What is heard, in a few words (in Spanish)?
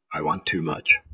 Voice Acting es M